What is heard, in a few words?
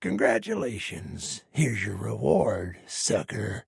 trader male dislike quest complete